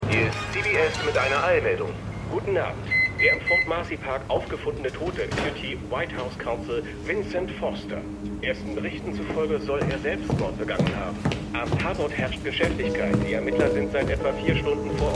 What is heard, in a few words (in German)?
Sprecher Nachrichtensprecher; CBS; AmericanCrimeStory